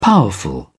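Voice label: "powerful", English, British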